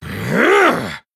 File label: Male Night Elf Attack